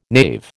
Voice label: "knave", American